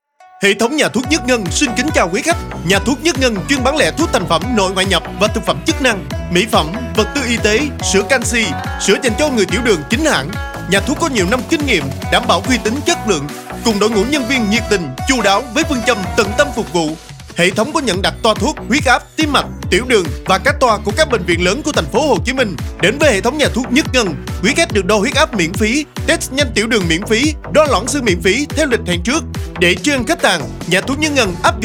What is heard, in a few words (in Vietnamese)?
thu am quang cao; nha thuoc Nhat Ngan